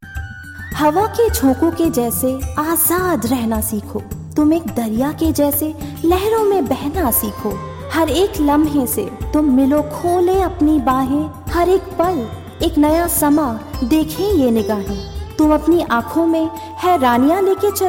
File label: Hindi VO Jingle